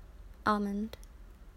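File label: "almond", Australian female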